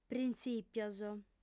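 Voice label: "printzìpios", Sardinian, female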